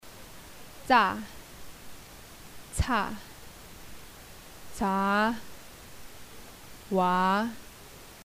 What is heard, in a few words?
alphabet, Tibetan